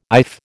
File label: "iff", American